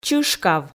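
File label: "чышкав", Komi, female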